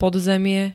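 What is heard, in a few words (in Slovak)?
"podzemie", Slovak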